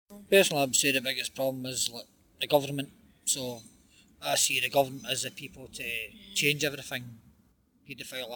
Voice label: Glasgow Male 47 Monologue